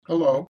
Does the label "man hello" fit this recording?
Yes